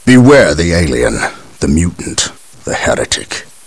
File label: chat; gabriel angelos